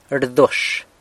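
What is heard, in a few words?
"rdaux", San Lucas Quiaviní Zapotec; barks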